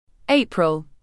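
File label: "April", British English; ˈeɪ.prəl; تلفظ بریتانیایی آوریل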